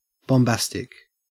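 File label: "bombastic", Australian English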